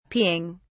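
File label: "peeing", English, British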